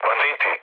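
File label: Serbian enemy detected mood low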